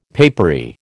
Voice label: "papery", American